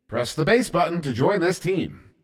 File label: Press Button To Join, Announcer